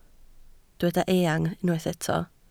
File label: "eang", Welsh